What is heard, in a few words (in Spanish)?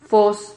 "fos", Silabas